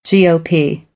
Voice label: "GOP", American English; oʊ - əʊ

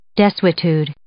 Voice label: "desuetude", English

